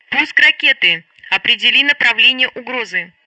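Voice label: Speech Sound Russian Common Messages; Betty; MissileMissile; DCS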